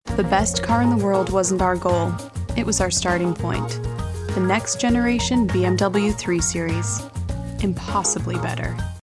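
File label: American English, Commercial; GoLocalise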